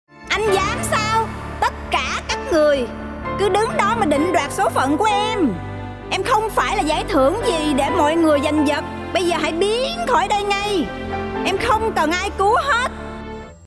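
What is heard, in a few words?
Vietnamese, female, character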